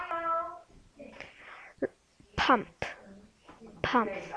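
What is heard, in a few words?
"pump", spoken word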